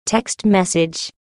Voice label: talking text alert ringtone

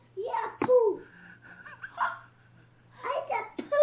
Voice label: poo i said poo funny ringtone

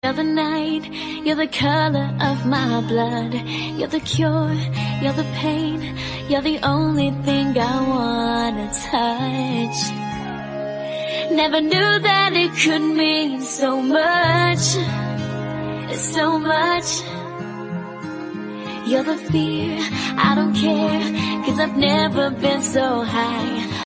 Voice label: female English ringtone; galaxy

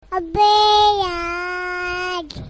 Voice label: aby yaar funny child voice message tone ringtone; galaxy sms ringtones

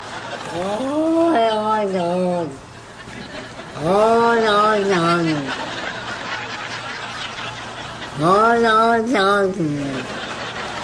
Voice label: talking cat ringtone

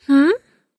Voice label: "hmm", lovely girl voice message tone ringtone

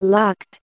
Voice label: locked female

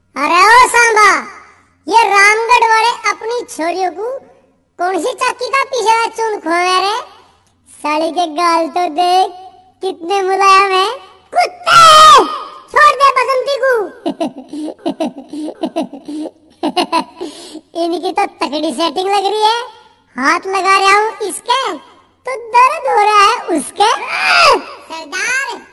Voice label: comedy dialogue ringtone, funny; areh o sambha; gabbar; sholay

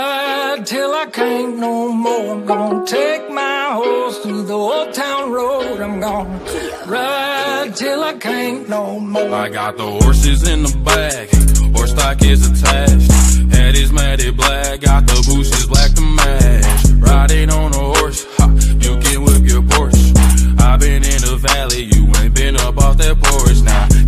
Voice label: female english ringtone

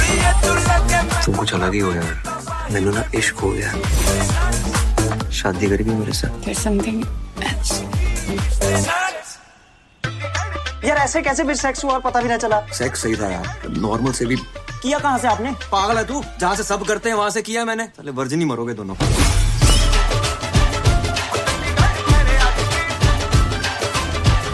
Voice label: dialogue music ringtone, bollywood

